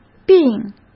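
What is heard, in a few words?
"并", Mandarin Chinese; hsk; chinese-flashcards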